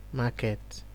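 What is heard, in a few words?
"market", Nigerian Pidgin, male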